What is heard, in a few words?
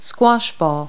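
"squash ball", English words; Multi-Lingual Talking Picture Dictionary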